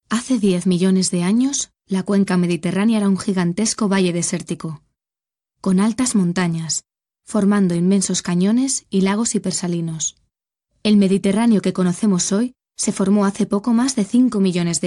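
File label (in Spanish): otros España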